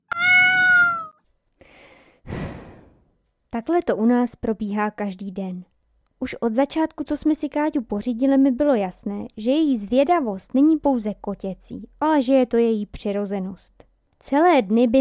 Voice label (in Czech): narace